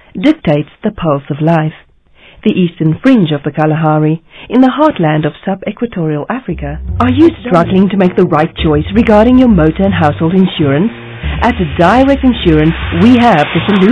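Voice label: English South African female voice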